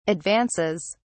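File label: "advances", British English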